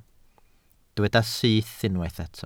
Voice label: "syth", Welsh

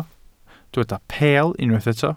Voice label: "peel", Welsh

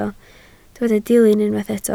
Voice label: "dilyn", Welsh

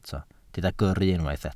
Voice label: "gyrru", Welsh

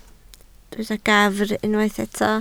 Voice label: "gafr", Welsh

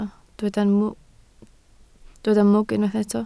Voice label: "mwg", Welsh

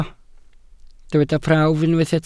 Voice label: "prawf", Welsh

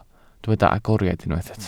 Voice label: "agoriad", Welsh

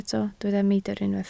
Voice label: "meidr", Welsh

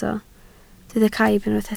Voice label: "caib", Welsh

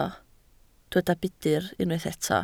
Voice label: "budr", Welsh

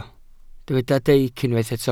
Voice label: "deic", welsh

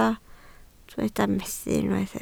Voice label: "methu", Welsh

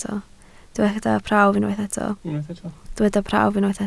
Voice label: "prawf", Welsh

